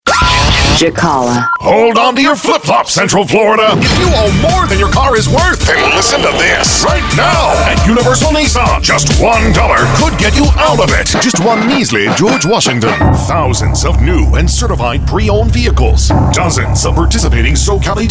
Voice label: Hard Sell Automotive; Jakala Creative Audio